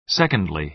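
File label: "secondly", American English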